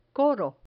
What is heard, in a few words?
"coro", Spanish